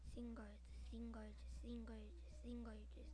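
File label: "singer", spoken word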